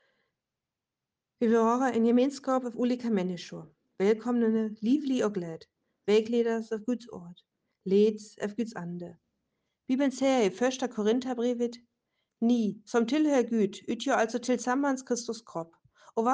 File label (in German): Schwedisch; Wir möchten eine Gemeinschaft